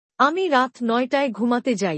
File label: I go to bed at 9 PM bangla; Time and Routine